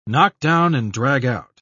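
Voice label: "knock-down-and-drag-out", English, British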